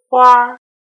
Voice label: "huar", Chinese; erhua

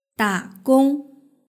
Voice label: "dagong", Chinese

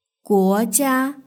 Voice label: "guojia", Chinese; hsk4

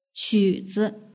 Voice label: "quzi", Chinese; duiniutanqin

